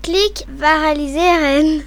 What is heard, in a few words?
click on letter, br; gcompris